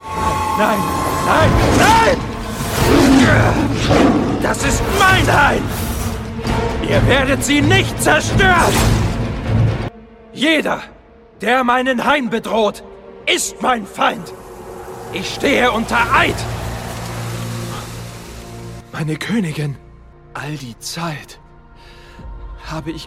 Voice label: Game Trailer; Shadowlands